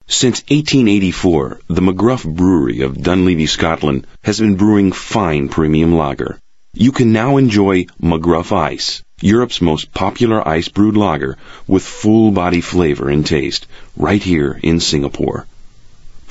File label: US English